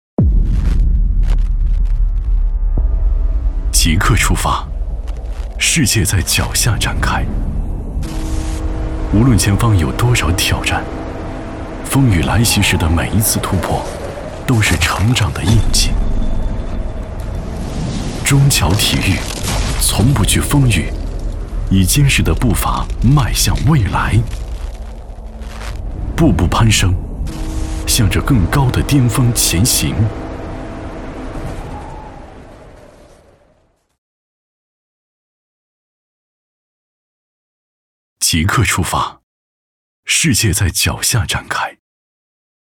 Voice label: 男 广告; 中乔体育

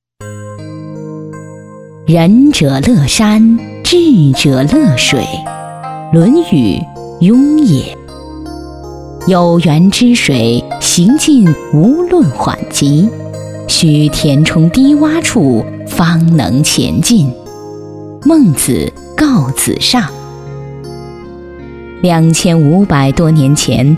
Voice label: 女 专题; 青莲湖

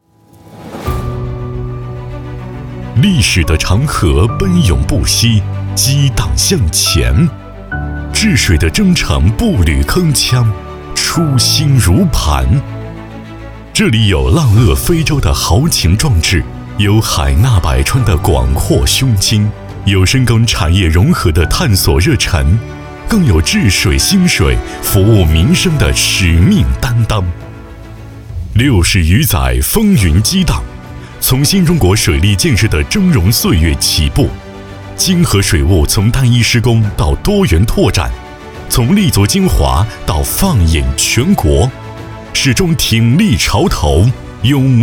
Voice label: 男 专题; 百舸争流; 奋楫者胜

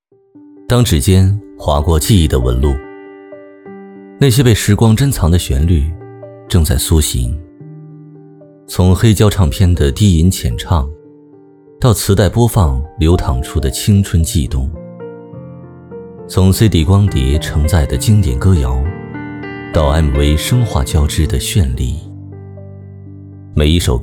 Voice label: 男 走心深情; 岁月留声机

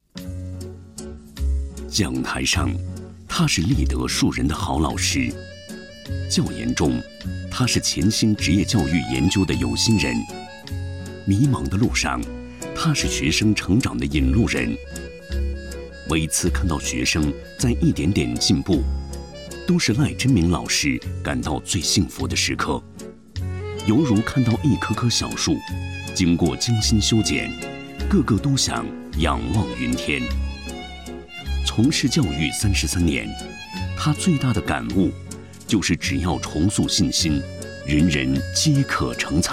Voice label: 男 独白

